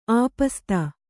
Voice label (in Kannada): "ಆಪಸಾತು", Kannada